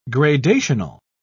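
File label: "gradational", English, British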